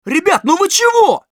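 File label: jeers, Russian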